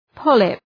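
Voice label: "polyp", English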